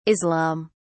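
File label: "islam", British English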